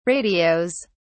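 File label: "radios", British English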